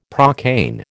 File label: "procaine", American